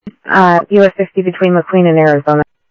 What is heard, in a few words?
Sheriff, scanner audio; SoutheastCenMesa; MCSO - EAST; Sheriff-EastCnty